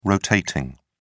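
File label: "rotating", British English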